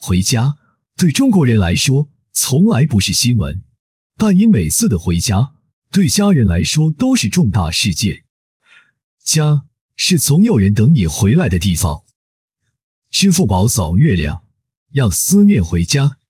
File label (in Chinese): 宣传片大气沉稳男生